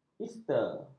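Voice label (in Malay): "ester", Malay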